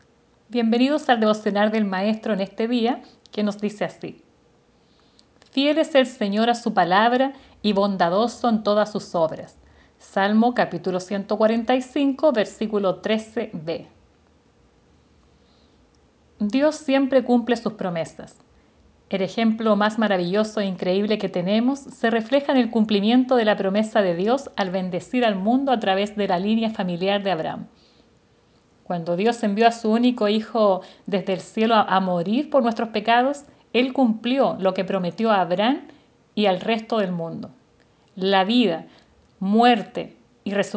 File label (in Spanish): devocional